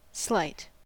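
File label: "slight", US English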